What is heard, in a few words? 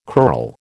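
"crural", American English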